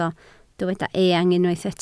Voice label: "eang", Welsh